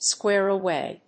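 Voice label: "square away", English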